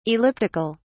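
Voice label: "elliptic(al)", British English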